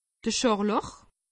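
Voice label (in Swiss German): "scharlach", Alsatian; medecine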